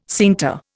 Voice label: "scienter", Indian